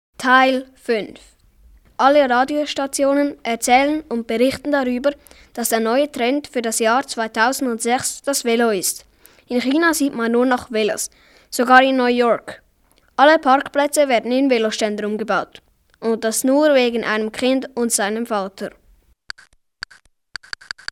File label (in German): Hoerspiel; Minutengeschichten